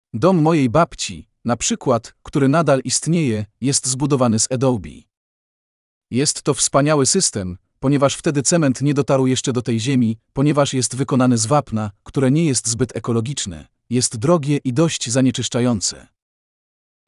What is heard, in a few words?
Polish